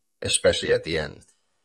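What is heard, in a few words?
VoiceActing es M2, voice acting, Spanish, male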